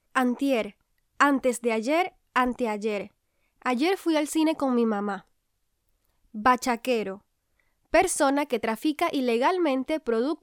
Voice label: 西班牙语女 外语 小语种 干音

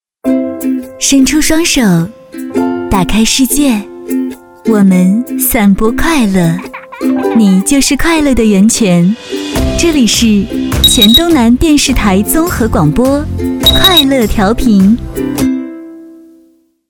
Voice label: 片头片花 广播 时尚; 广告; 黔东南州

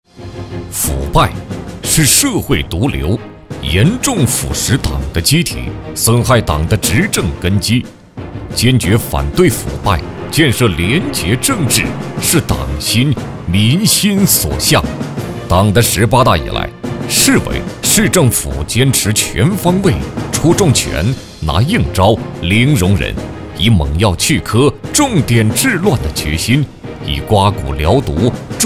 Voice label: 男国 专题 党建 力度; 党建反腐

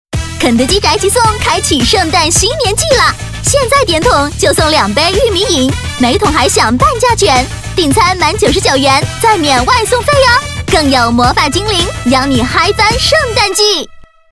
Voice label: 广告 促销 活力; 肯德基

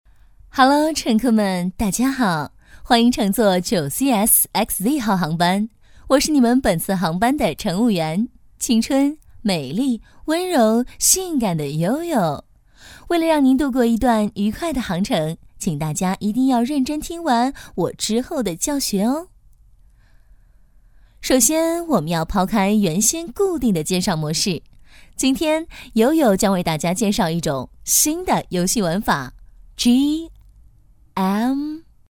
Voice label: 广播提示

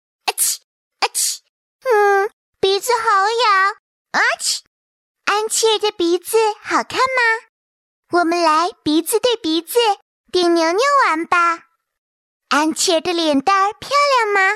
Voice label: 动画 童声 女童, 女国

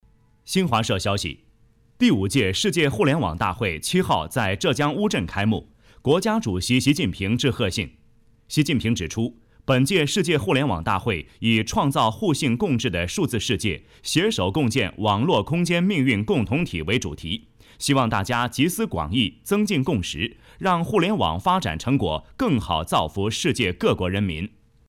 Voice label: 男 专题 新闻 自然; 第五届互联大会

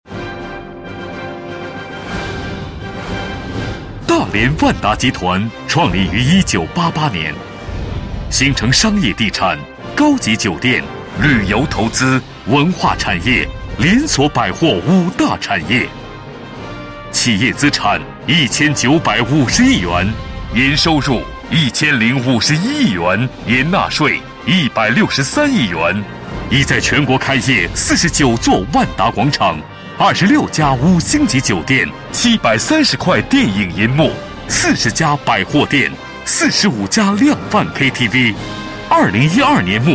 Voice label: 宣传片 企业 宣传介绍 激情; 大连万达

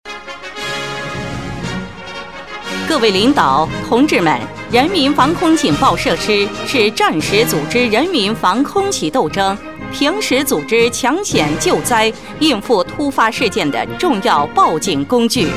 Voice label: 专题 汇报 部队汇报

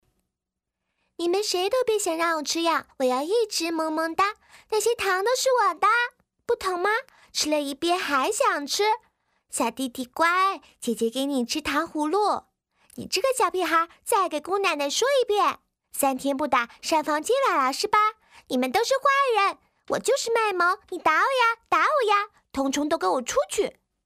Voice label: 动画 角色 萝莉音

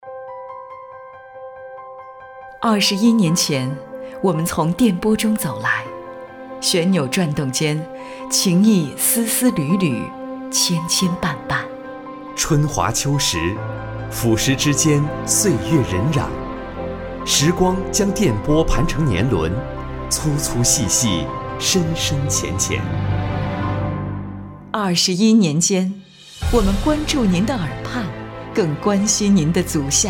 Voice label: 栏目 电台包装 交通广播, 女国; 丹东交通广播

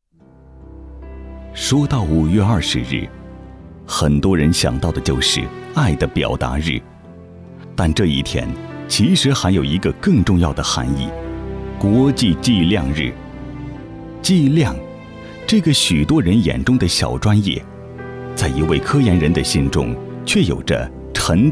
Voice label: 专题 人物 人物介绍, 男国

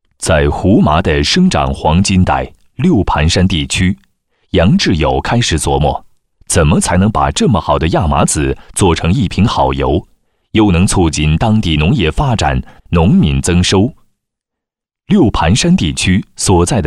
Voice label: 男国 专题 产品 大气; 胡麻油

